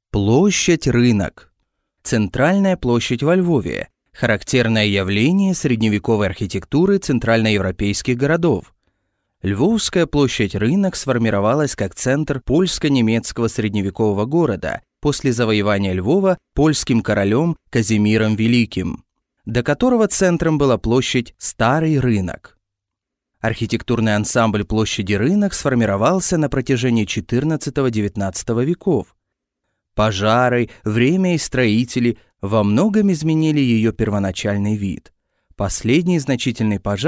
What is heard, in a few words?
Narration edu dry ru